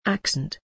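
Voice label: "accent", English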